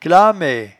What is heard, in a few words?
"clamaie", patois jura